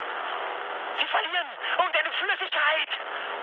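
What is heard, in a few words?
German tank damaged mood high, speech; War Thunder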